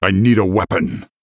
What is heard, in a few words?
Male Human Emote ERROR NEED WEAPON